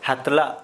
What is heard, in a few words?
Santali Armpit